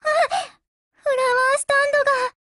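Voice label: BREAK Japanese, Voice; GF Alarm; Ak5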